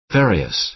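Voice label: "various", American English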